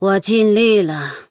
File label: Female Human Concede, zh; 对决模式; 投降; 渗透者莉莉安; Lilian